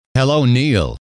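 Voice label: hello, male; trainer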